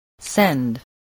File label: "send", english spelling